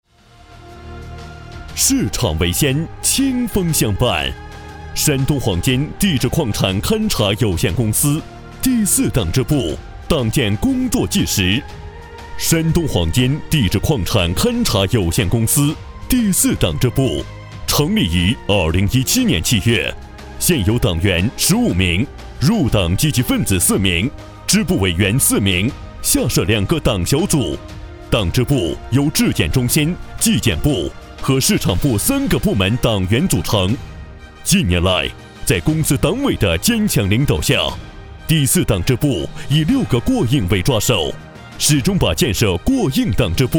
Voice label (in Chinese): 男 宣传 党建宣传